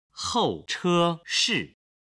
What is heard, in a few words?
"houcheshi", Chinese